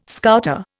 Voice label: "Scouter", Indian

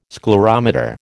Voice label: "sclerometer", American

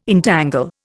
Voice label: "entangle", Indian

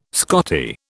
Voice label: "Scottie", British

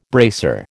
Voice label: "bracer", American

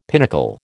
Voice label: "pinnacle", American

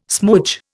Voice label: "smoodge", Indian

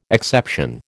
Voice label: "exception", American

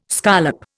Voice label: "scollop", Indian

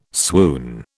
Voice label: "swoon", British

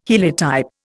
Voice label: "heliotype", Indian

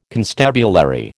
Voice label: "constabulary", American

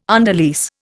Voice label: "underlease", Indian

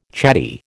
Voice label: "chatty", American

